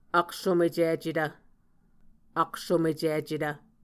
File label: "aksomecicetv", muscogee